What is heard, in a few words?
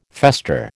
"fester", American